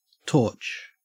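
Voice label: "torch", Australian English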